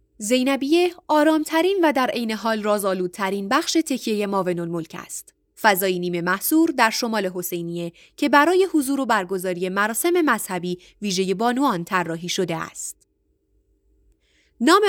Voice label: zeynabiyeh persian; zeynabiyeh moaven al molk